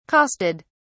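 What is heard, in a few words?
"costed", British English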